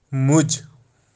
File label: "muj", Kashmiri